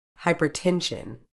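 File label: "hypertension", British English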